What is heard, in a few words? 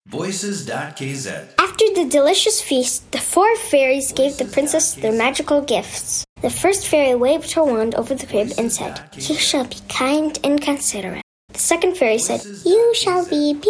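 English kid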